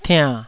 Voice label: "tiann3", taiwanese Syllables